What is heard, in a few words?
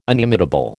"inimitable", American